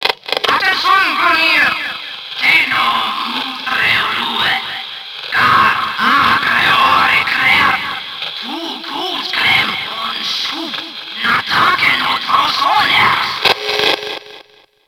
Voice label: Informant Drone PA; Warframe; Grineer